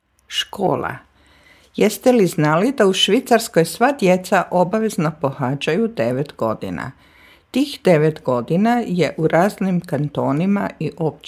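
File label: Croatian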